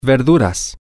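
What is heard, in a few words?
Spanish, vegetables, male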